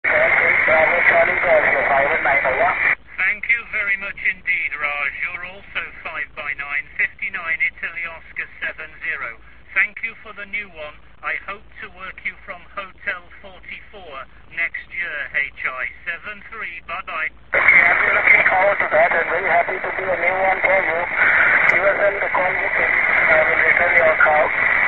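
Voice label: amateur radio contact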